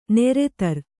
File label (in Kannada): "ನೆರೆತರ್", Kannada